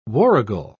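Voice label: "warrigal", British English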